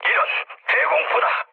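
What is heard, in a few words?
Korean damaged aa mood low, speech; War Thunder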